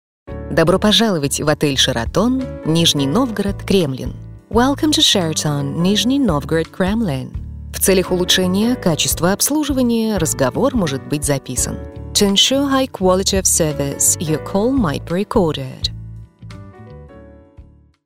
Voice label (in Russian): Приветствие в отель на русском и английском; ДИКТОРЫ ДЛЯ IVR